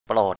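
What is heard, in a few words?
"โปรด" Thai, male; 'please'; prò:t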